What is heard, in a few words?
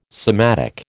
"sematic", American